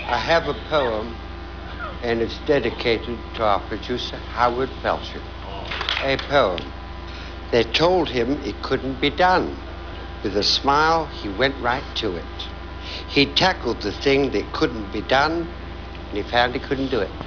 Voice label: Poem

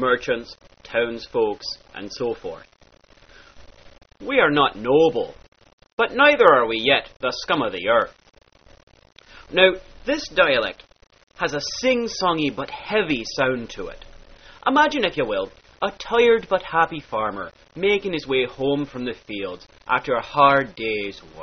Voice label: country, dialects

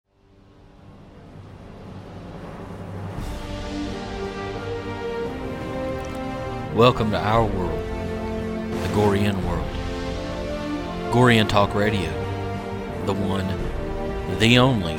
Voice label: Promo; GTR

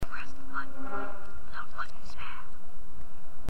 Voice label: backwards

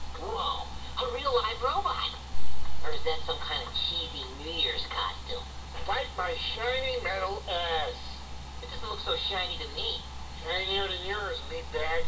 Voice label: robot

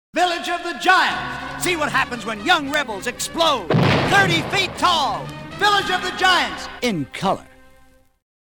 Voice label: radio ad

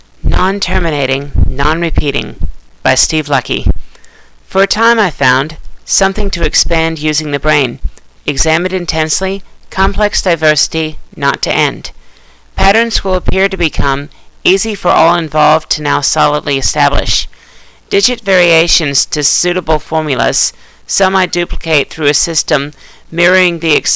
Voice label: poem spoken

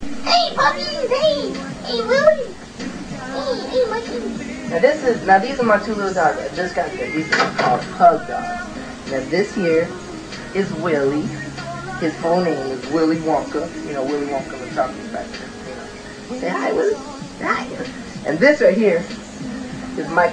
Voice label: babytalk

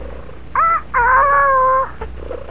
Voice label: uh oh

